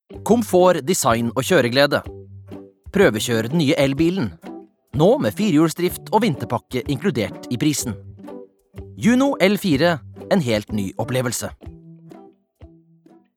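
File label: Norwegian advert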